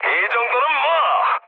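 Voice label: Korean trick mood high; speech; War Thunder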